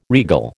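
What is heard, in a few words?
"regal", American English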